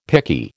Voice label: "picky", American